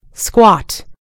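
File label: "squat", American English; gcompris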